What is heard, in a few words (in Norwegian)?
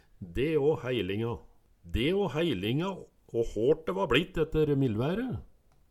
"de a haeilinga", Numedalsmål